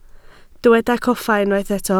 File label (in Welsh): "coffa", Welsh